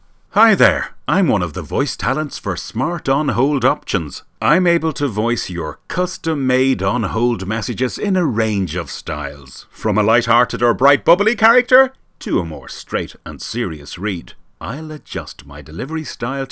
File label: MALE; Voice Over artists; Smart On Hold Messages